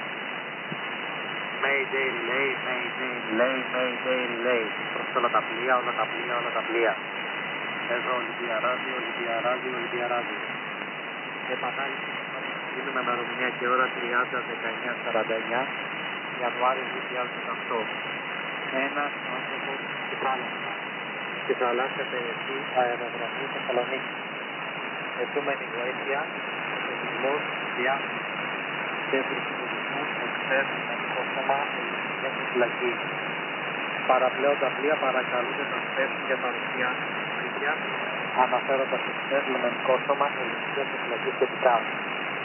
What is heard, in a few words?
2182 khz usb mayday signal